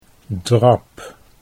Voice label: "drab", Dutch